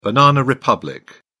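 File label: "banana republic", British English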